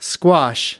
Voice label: "squash", us

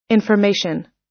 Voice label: "information", us

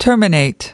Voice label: "terminate", us

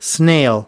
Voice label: "snail", us

